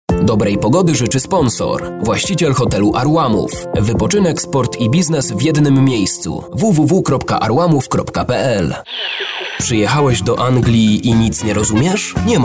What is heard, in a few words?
M COMMERCIAL